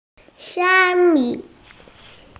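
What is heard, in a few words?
"xia1mi5", Mandarin Chinese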